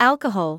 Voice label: "alcohol", medical english; ˈæl.kə.hɒl/ AL-kuh-hol